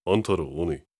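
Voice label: question short, voice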